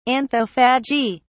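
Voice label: "anthophagy", British English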